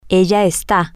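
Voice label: Spanish, female; she is temporary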